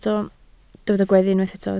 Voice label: "gweddu", Welsh